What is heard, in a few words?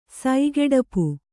"ಸೈಗೆಡಪು", Kannada